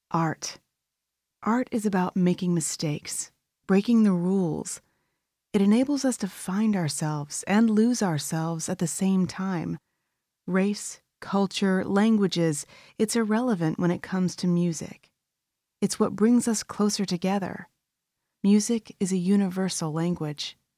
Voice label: english american explainer videos

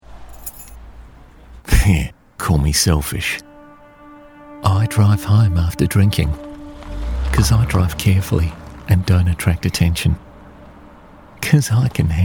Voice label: english australian commercial

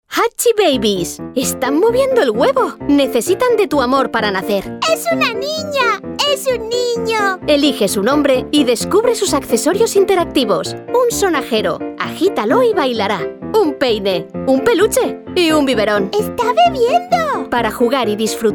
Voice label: spanish commercial

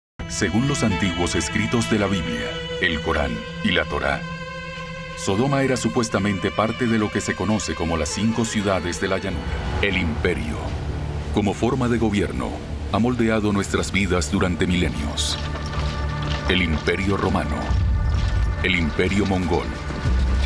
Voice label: spanish colombian documentaries

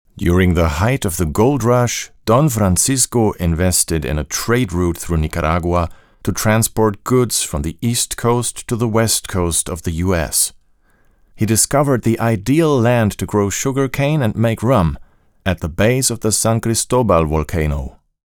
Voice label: english documentaries; actor de doblaje profesional

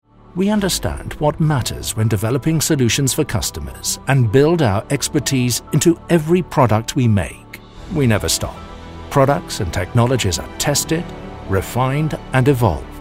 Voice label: english british corporate videos

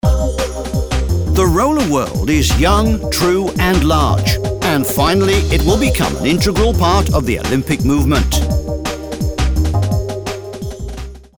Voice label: English British documentaries

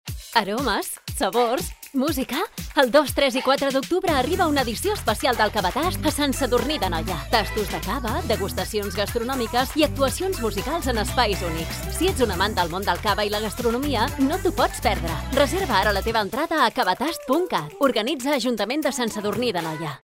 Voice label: catalan automotive

